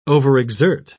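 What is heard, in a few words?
"overexert", British English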